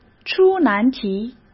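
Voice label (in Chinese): "出难题", Mandarin Chinese; chinese-flashcards; hsk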